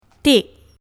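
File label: "tik", Malay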